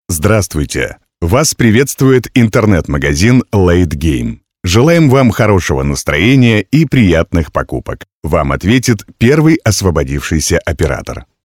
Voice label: ivr